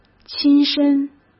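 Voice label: "亲身", Mandarin Chinese; hsk; chinese-flashcards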